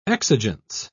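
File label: "exigence", British English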